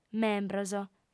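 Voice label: "membros", female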